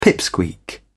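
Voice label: "pipsqueak", British English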